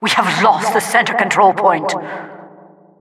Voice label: announcer we lost center control

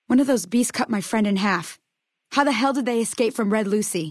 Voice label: female adult default dialogue greeting; Fnv

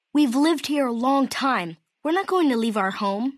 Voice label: male child greeting; FNV

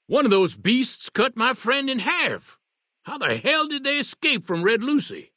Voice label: male old greeting

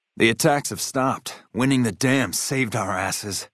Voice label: male adult greeting

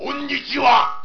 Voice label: Warrior Voice

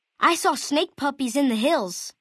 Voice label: Male child greeting; FalloutNV

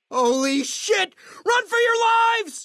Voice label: male adult dialogue greeting; Fnv

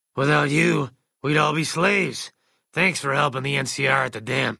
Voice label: male generic ghoul hello; Fnv

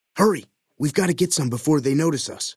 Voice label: male adult take water

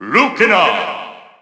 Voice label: Lucina Jpn

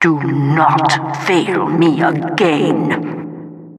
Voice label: announcer do not fail again

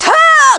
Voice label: Shouty; Brawl Sheik

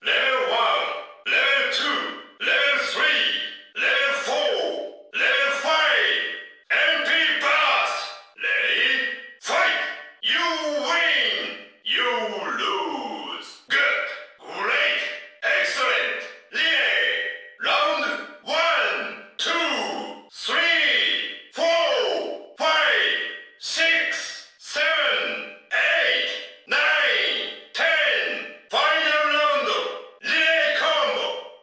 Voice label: Japanese Announcer

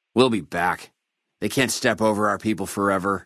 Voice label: male adult greeting; FNV

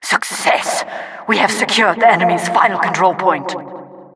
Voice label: announcer success secured final control

